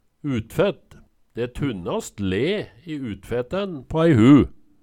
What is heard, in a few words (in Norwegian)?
utfet, Numedalsmål